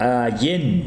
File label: "broma", Tsesungun